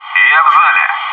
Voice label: "family room", bot

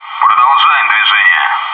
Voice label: front door, bot

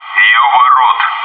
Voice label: "gate", bot

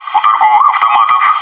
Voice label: vending machines, bot

